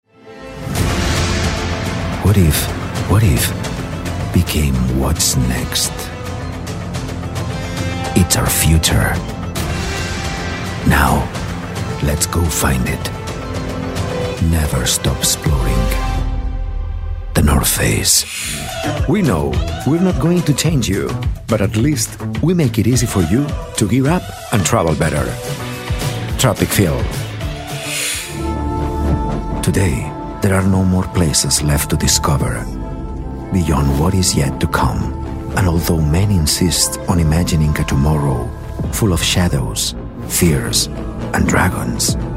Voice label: spanish male english commercial, European Spanish Male